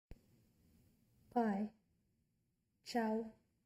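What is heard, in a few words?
Bye, Bulgarian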